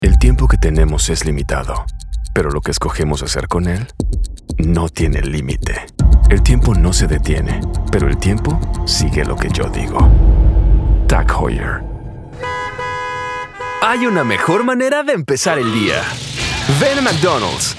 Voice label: male spanish latam and spanish mexican commercial